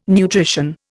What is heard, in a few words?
"nutrition", Indian